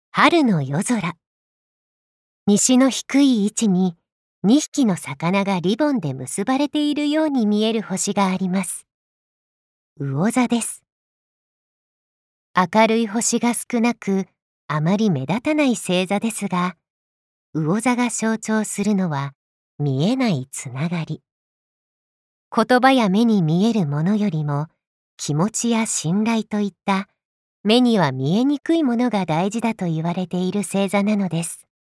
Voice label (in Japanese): ナレーション